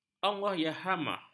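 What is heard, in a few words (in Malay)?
"allahyarhamah", Malay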